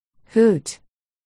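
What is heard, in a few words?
"hoot", spoken word, articulation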